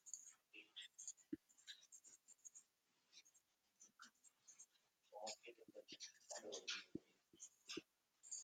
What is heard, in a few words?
voice message; whatsapp